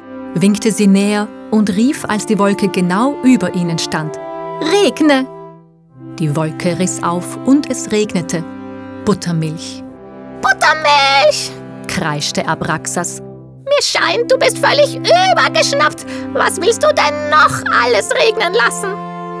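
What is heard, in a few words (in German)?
Hörbuch Kleine Hexe; Sprecherdatenbank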